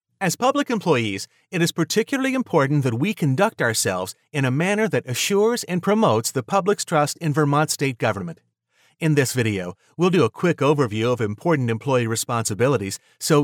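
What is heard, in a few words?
American English, male, voice over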